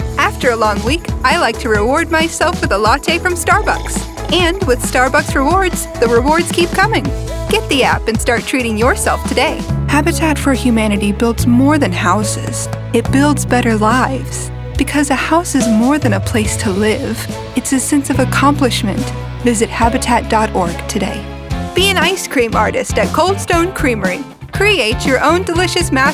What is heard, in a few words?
Commercial